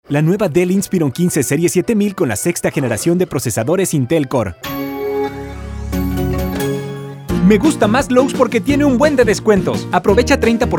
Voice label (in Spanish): Comerciales